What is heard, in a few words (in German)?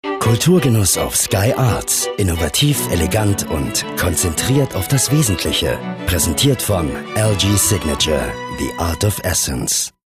Freundlich